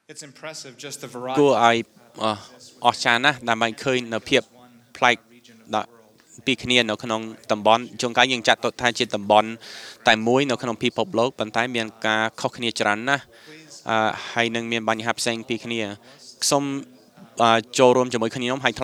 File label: Cambodian Session, khmer; iclrs symposium 2009